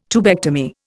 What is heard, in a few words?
"tubectomy", Indian